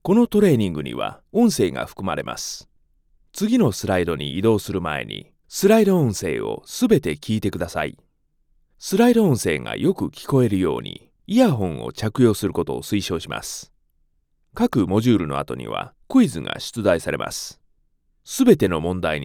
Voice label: eLearning